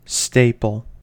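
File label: "staple", US English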